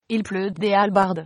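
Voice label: rain, French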